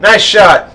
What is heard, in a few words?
male good shot, voice; lmctf